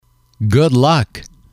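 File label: good luck, English, male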